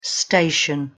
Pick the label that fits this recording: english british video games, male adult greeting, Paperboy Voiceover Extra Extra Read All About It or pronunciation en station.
pronunciation en station